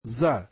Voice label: russian behind

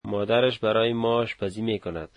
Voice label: her mother cooks for us, Dari

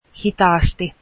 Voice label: slowly, finnish

